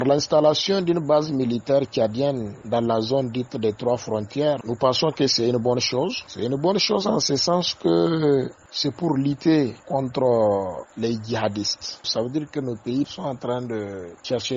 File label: FR VOX POP; DEPLOIEMENT MILITAIRE TCHADIEN ZONE TROIS FONTRIERES TILLABERI